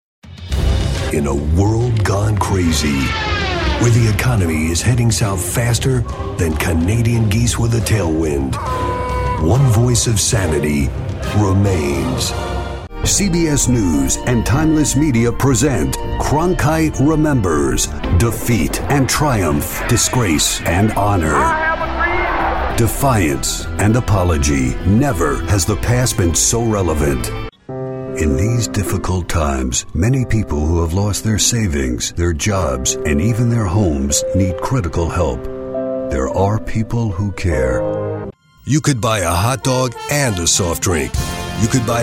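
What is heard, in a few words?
US English, General; GoLocalise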